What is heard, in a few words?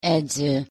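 "edzo", Hungarian pronunciation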